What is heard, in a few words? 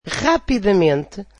portuguese quickly